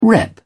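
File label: "rep", English, British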